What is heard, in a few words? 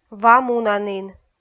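"ва мунанін", Komi, female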